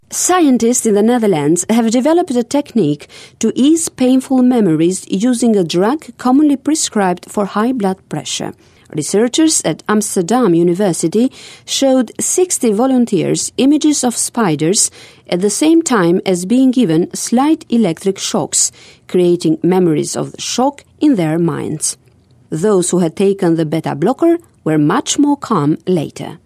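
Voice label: Albanian English; Albanian, Male, 20s-30s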